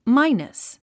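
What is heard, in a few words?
"minus", English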